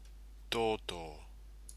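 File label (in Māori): "toto", Māori word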